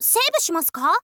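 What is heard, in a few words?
"save shimasu ka", Japanese, girl; voice; info girl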